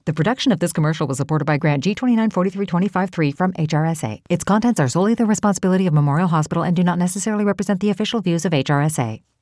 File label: Medical legal quick